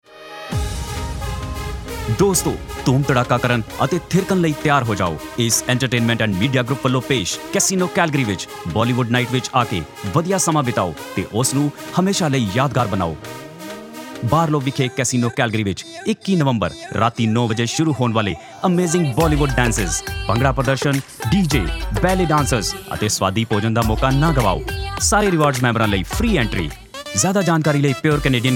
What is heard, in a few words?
promo punjabi